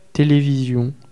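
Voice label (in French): "television", French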